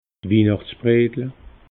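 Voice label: "wihnachts bredle", Alsatian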